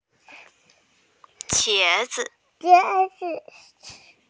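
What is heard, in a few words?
"eggplant", Mandarin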